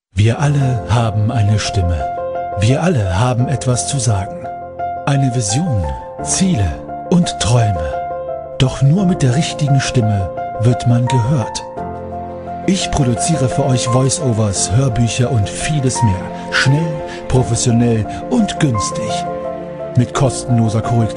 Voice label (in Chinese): 德语男声 广告